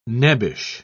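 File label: "nebbish", British English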